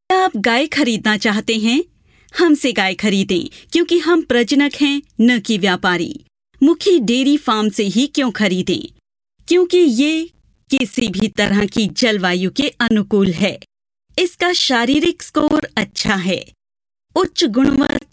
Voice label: Female, Hindi